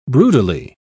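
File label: "broodily", British English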